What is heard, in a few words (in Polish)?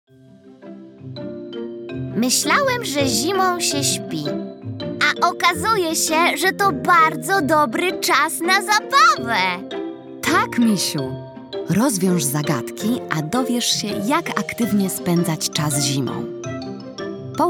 narracja; czasopismo mis; zima; rozmowa